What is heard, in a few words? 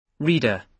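"reader", British English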